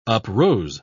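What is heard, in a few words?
"uprose", British English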